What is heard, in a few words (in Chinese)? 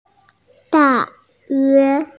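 "da4yue1", Mandarin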